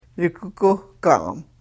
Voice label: "yukukuhkaam", karuk